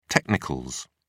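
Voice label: "technicals", British English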